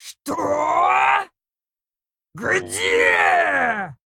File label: Female Bear Attack